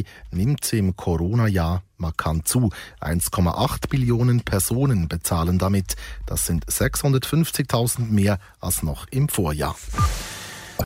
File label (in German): Radio Bern1 Nachrichten